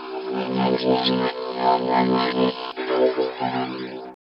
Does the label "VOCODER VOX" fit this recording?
Yes